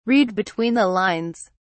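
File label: "read between the lines", British English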